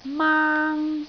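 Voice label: "maang1", Cantonese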